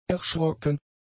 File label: "erschrocken", German; adjectives